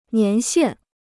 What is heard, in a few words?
"年限", Chinese